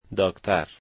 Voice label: doctor, dari